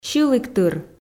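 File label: "сьылігтыр", Komi, female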